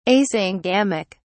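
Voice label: "asyngamic", British English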